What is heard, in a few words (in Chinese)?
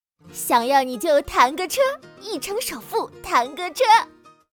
广告 活力少女; 弹个车